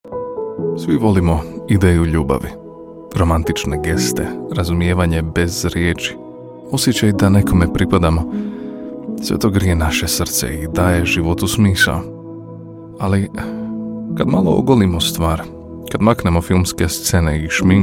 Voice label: Naration, croatian